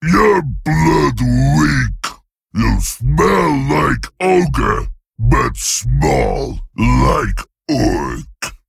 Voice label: Male Gronn; Gorgrom; Rexxar Mission8ExchangeC